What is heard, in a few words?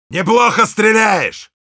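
"nice shot", Russian; Heavy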